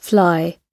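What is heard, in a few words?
"fly", British English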